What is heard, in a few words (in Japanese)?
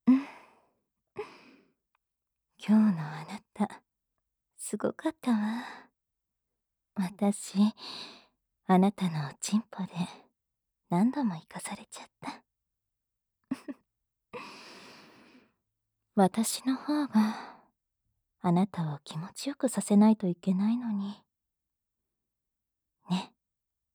恋する踊り子の添い寝, ASMR; wav-SE無し